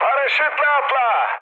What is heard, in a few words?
bailout, mood high, speech tur; War Thunder